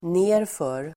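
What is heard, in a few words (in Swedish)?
"nerför", Swedish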